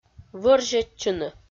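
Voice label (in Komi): "вӧрзьӧдчыны", Komi-Zyrian, female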